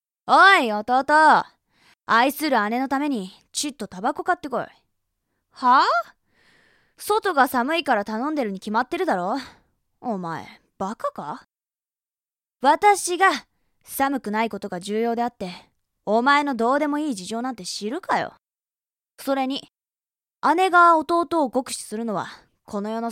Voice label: woman; 女性